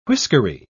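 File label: "whiskery", British English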